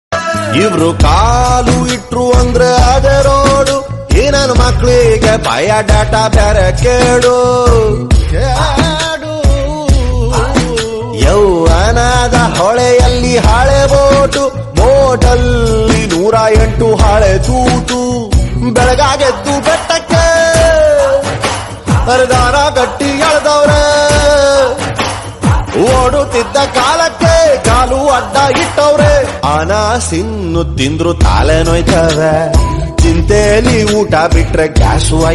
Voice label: drama kannada

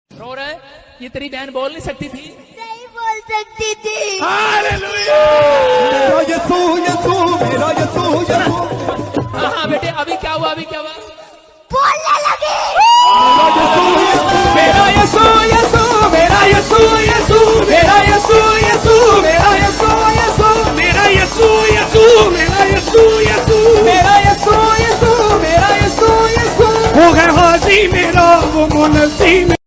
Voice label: dialogue funny; mera yasu yasu

